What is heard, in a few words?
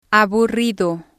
"aburrido", spanish